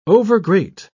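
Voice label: "overgreat", British English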